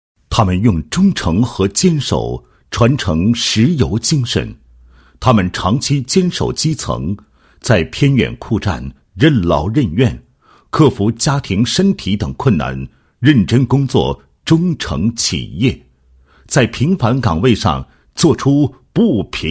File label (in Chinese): 男-颁奖-销售十大人物候选人, 配音案例; 2015年感动安徽销售十大人物候选人